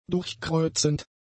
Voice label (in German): "durchkreuzend", German; adjectives